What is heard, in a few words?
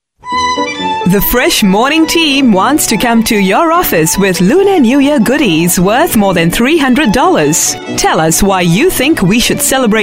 Asian English Upbeat